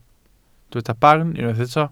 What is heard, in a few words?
"barn", welsh